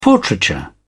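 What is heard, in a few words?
"portraiture", British English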